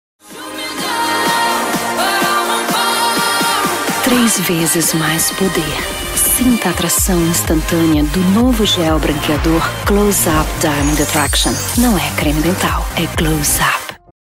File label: female brazilian portuguese commercial